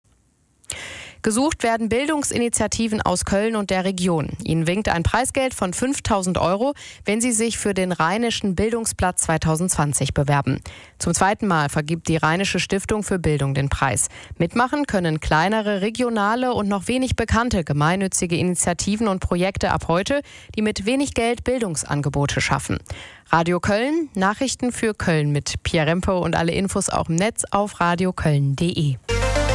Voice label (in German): radio nachrichten